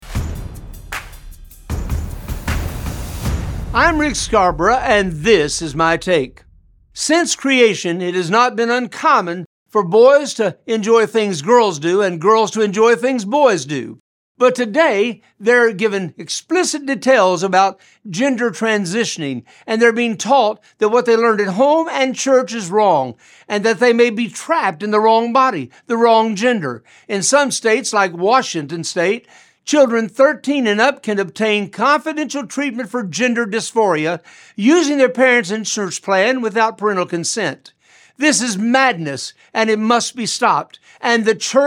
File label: Radio Spot; Trans Agenda